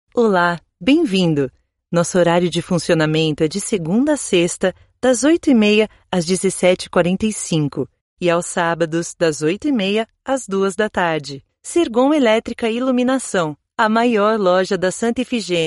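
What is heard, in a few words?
IVR, Brazilian Portuguese; voice demos; GoLocalise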